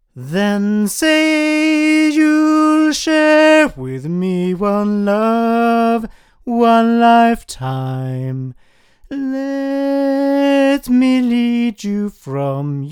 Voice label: male; studio projects